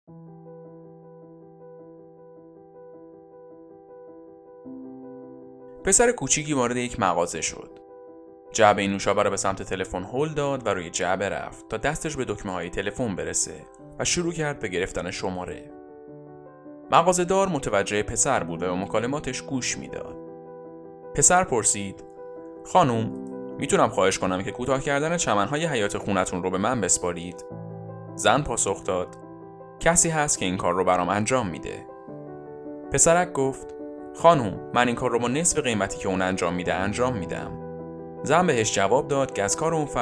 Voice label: Persian Farsi male